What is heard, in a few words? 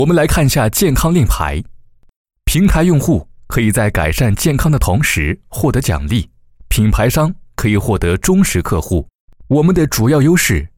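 Chinese Mandarin M Dry Narration, male; voice over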